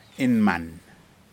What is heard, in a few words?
bird general, Aneityum